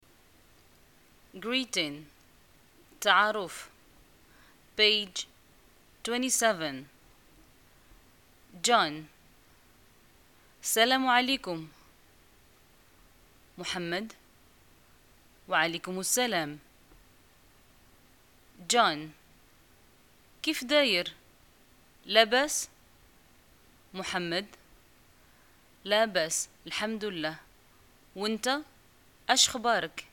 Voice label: dialogue, Arabic, North African, Moroccan